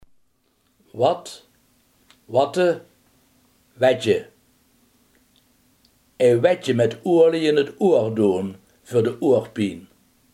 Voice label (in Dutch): "wat", limburgse